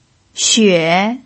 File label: "xue3", pinyin